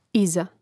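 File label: "iza", Croatian; mreznik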